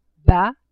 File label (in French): "Bats !", French; Impératif; Imperative; Beat!